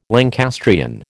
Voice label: "Lancastrian", American

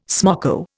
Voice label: "smoko", Indian

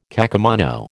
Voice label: "kakemono", American

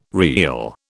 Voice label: "reel", British

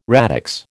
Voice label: "radix", American

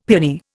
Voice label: "piani", Indian; Dictionary

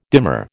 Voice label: "demur", American

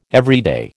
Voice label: "everyday", American

